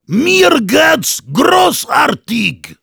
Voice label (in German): positive vocalization